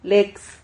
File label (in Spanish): "lex", Silabas